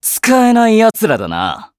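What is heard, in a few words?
victory, Japanese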